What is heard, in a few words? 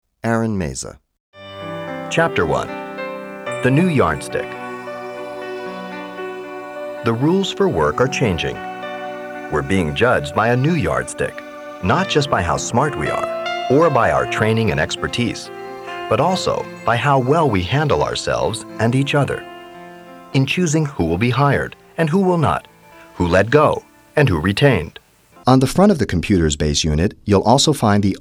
narr; narration; voice over